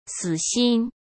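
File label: "死心" Mandarin Chinese; chinese flashcards; hsk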